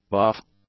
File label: "buff", English; adjectives